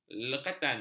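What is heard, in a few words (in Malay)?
"lekatan", Malay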